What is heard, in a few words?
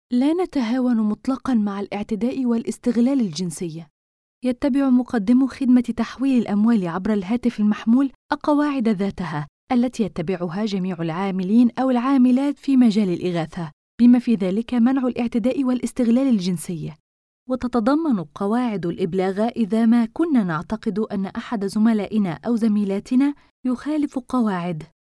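Arabic Saudi Arabia, female, voice over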